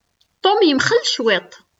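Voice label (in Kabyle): Tom yemxel cwiṭ., Kabyle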